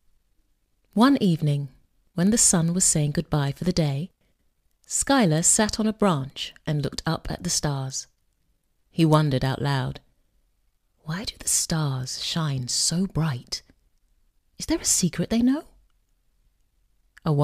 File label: Audiobook